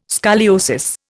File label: "scoliosis", Indian